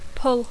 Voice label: "pull", English

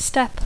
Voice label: "step", English

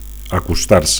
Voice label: "acostar", Catalan; to bring something closer

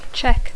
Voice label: "check", English

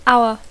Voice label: "hour", English

